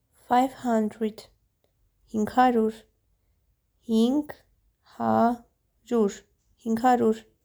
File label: "500 hingharyur", Armenian